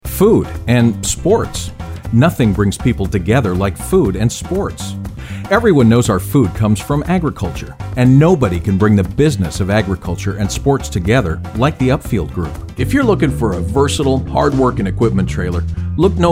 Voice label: Straight Reads

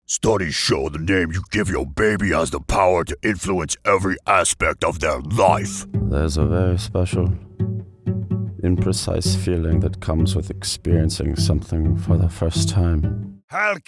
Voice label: animation cartoon

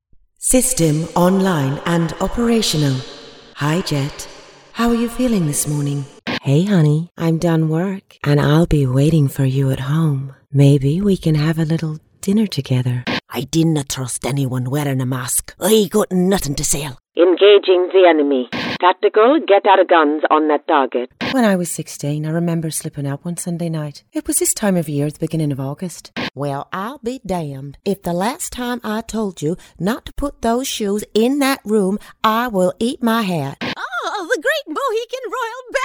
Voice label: Accents

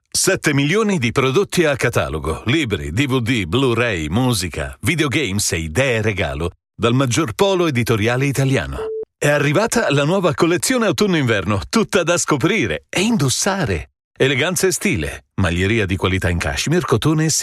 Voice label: Spot

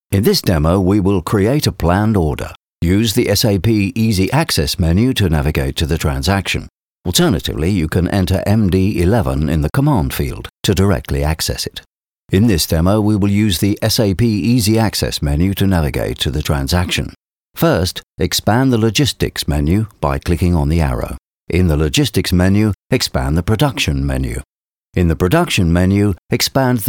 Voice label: software Demonstration Narration

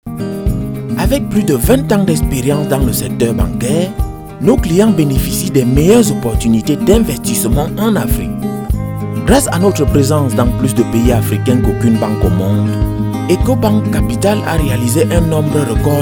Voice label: French work sample Commercial

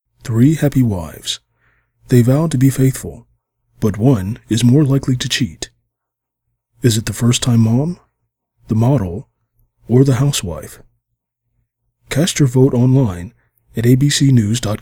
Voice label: Promo and Trailer

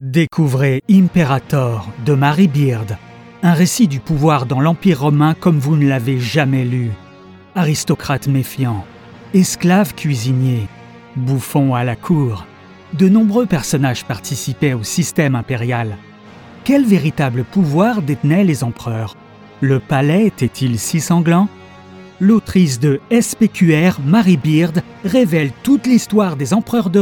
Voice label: Spot pub, Radio Commercials, French, Male; Book Launch; Deezer; Sortie du livre Imperator de Mary Beard

